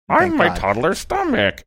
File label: saying im my toddlers stomach funny